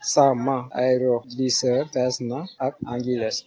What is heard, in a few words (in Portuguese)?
hovercraft uolof